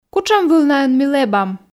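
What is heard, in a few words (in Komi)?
кутшӧм вылнаын ми лэбам, Komi, female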